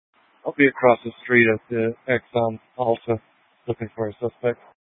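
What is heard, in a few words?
Police 1 Dispatch, Scanner audio recording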